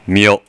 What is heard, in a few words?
"myo", kana